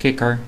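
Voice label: frog desc, Dutch; tuxpaint-stamps; animals; amphibians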